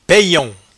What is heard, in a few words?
"payon", patois jura